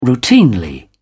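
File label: "routinely", British English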